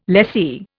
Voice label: "lessee", Indian